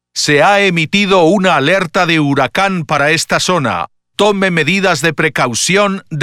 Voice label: Hurricane Warning (Spanish); Pathfinder